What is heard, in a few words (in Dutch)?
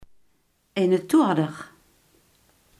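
iemand die in thorn woont, Limburgse gesproken woordenboeken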